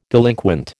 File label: "delinquent", American